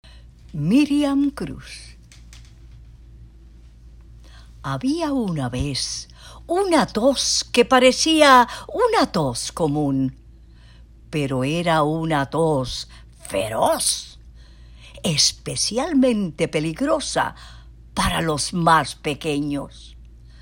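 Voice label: Spanish, voiceover; Commercial Demo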